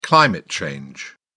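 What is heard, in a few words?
"climate change", British English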